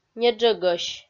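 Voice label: "неджӧгӧсь", Komi, female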